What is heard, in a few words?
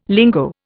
"lingo", Indian